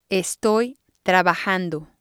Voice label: "estoy trabajando", spanish